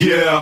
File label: "yeah", group of men